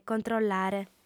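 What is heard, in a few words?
"controllare", female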